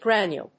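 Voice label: "granule", English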